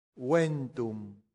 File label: "ventum", latin; santillana